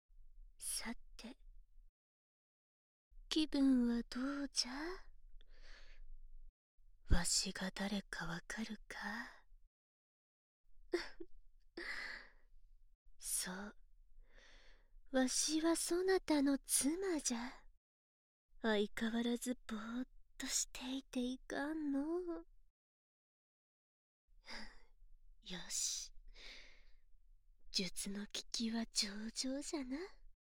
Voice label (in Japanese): 囁き